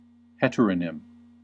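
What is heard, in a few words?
"heteronym", US English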